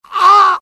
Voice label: death, UK; mohaa; dialogue